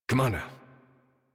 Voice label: Horse Start Adult; Warframe; Duviri